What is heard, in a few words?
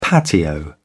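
"patio", British English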